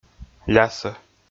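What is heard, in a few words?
"лясӧ", Komi, male; kpv audio dict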